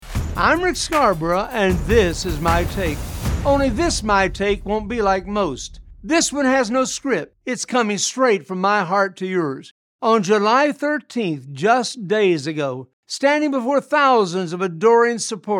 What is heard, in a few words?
radio spot; Trump Assassination Attempt